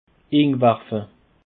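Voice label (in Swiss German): "iwarfe", Bas Rhin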